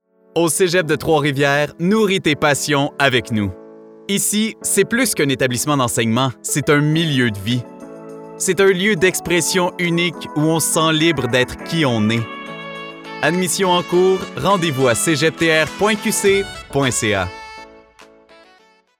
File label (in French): fr qc naturel informatif promo motivant adulte medium; cegep de trois rivieres